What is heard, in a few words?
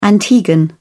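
"antiguan", British English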